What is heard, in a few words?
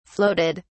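"floated", British English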